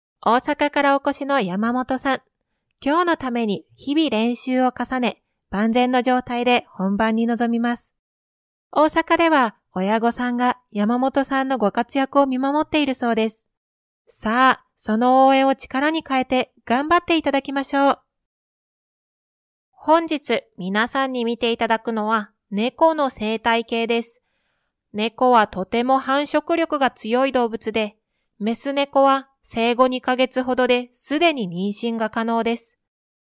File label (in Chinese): 日语女声